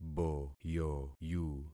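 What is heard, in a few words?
"boyoyu", concatenated syllables; Affixing experiment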